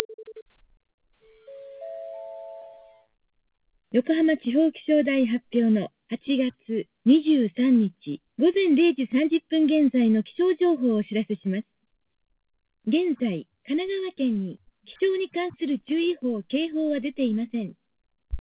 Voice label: g722 wifi